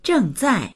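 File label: "zheng4zai4", Mandarin Chinese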